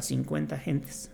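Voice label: "cinquenta gentes", Spanish, Mexico City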